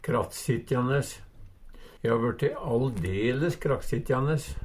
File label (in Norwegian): krakksitjandes, Numedalsmål